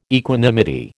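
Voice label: "equanimity", American English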